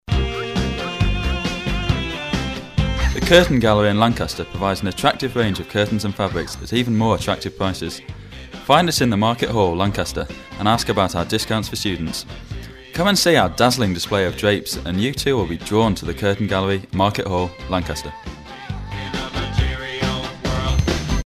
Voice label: ad, curtain gallery (material world); jingles ads 1995; bailrigg fm; bfm jingles ads RSL 1995

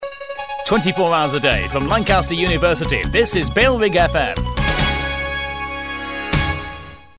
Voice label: 24h a day from lanc uni fast sting; bailrigg fm; bfm jingles ads RSL 1994

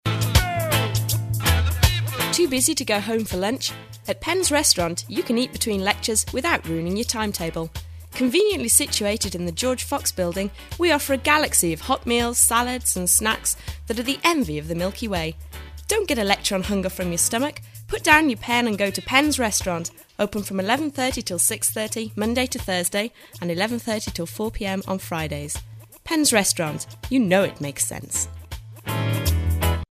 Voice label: ad - restaurant; penns restaurant (conveniently in GFox); bailrigg fm

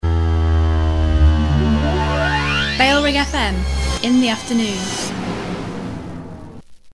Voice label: in the afternoon (female, rev bed), jingles; bfm; bailrigg fm; bfm jingles ads RSL 1994

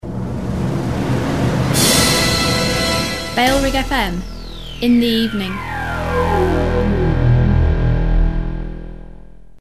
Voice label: in the evening (female, bed), jingles; bfm; bailrigg fm; bfm jingles ads RSL 1994

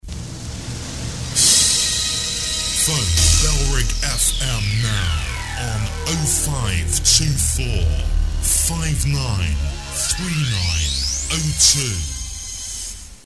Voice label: male, bed; phone bailrigg fm now; bfm jingles ads RSL 1994

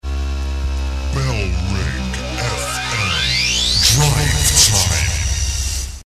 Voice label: male, reverse bed, jingles; bfm drivetime; bailrigg fm; bfm jingles ads RSL 1994

